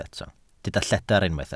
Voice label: "lledr", Welsh

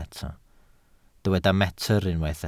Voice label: "metr", Welsh

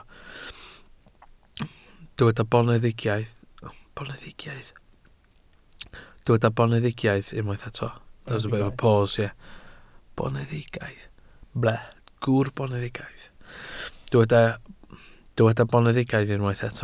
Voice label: "boneddigaidd", Welsh

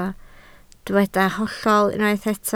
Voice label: "hollol", Welsh

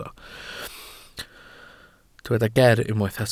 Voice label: "geer", Welsh

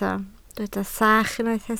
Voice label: "sach", Welsh

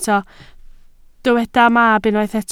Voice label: "mab", Welsh

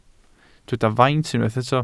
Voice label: "faint", Welsh

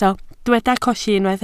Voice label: "colli", Welsh

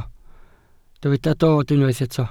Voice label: "dod", Welsh

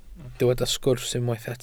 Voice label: "sgwrs" Welsh

